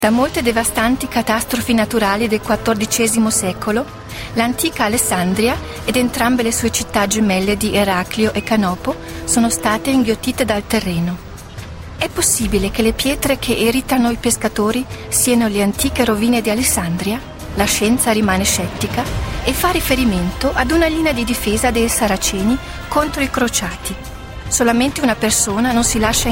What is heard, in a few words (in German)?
Dynamisch